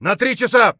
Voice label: flank right, voice; Escape from Tarkov

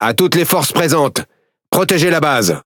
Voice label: Speech Quick Commands Ally Base, FR; World Of Warships

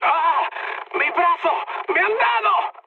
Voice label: damaged pilot, mood high, speech; War Thunder

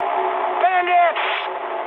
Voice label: eng enemy detected mood high, speech eng

